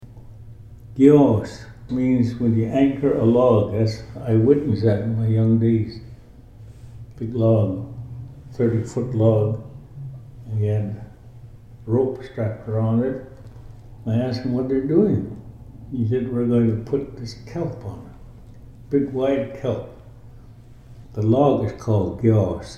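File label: gyoos, Ts’msyen; the time to harvest herring eggs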